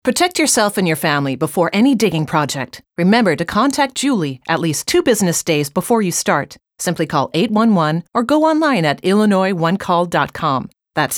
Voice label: On-Hold vo mono